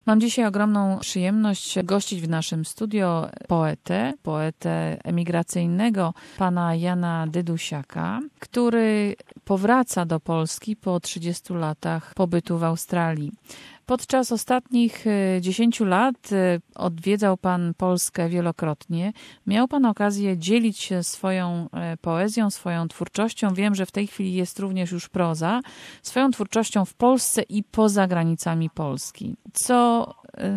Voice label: polish; SBS Polish